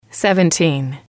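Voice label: "seventeen", English, female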